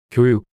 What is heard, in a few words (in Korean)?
"교육" 단어 남자